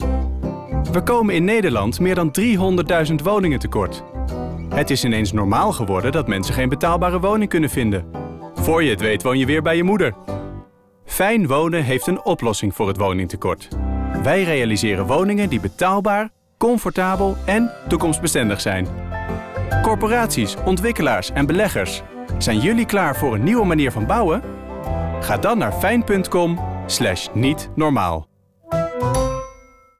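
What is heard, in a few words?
Dutch, male, commercial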